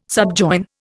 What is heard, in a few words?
"subjoin", Indian